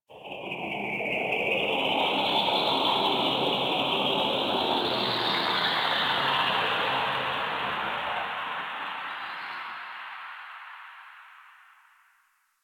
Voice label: Chimera Main Room Whisper One Off; Ambience; Warframe; DE

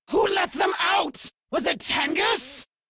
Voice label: Intel Inf Released Grineer Fem en; Warframe; Infested Intro Quest

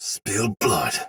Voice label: Attack Normal Soldier Sword Agent Male, Battle; Dialog; Barks; Melee; Sinecure

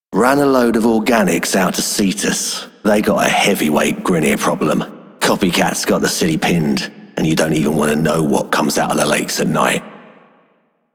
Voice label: Male Pilots, Male One, en; Dialog; Warframe; Solaris Venus

